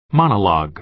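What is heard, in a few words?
"monologue", American English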